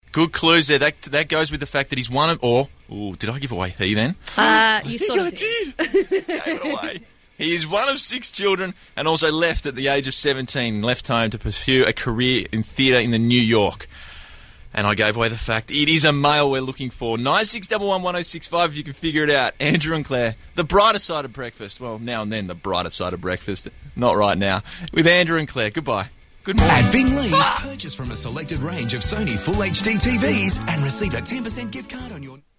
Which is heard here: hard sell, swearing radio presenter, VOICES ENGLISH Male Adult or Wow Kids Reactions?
swearing radio presenter